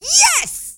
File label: scout cheers, femscout